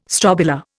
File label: "strobila", Indian